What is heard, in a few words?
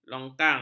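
"longkang", Malay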